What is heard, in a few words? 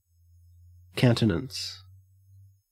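"countenance", Australian English; pronunciations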